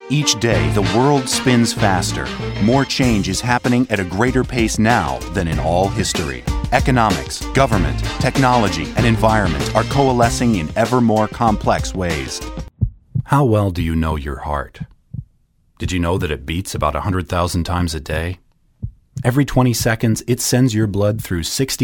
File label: man american